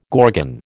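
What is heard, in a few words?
"gorgon", American English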